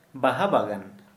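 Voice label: Santali, male, flower garden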